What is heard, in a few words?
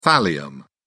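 "thallium", English, British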